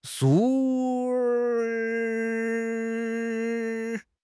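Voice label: "shu1", Chinese; HSK1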